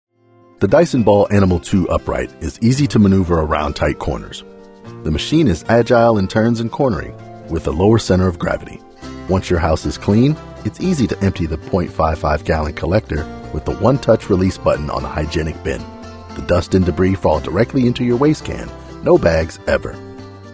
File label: Narration